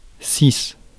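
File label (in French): "six", French; Mots francais phonemes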